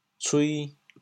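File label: "cui2", Teochew, chaoyang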